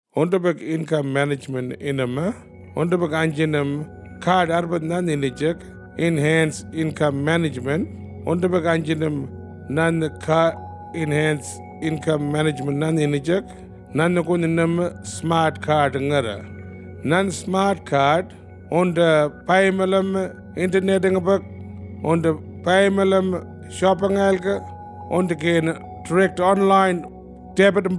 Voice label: western arrernte; enhanced income management